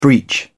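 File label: "breach", British English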